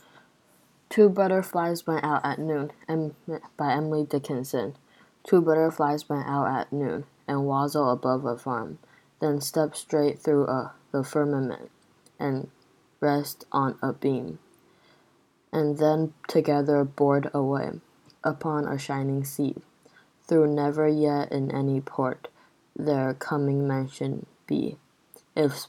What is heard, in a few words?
English poem